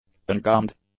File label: "ungummed", english; adjectives